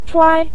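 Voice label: "chuai" Mandarin Chinese, neutral tone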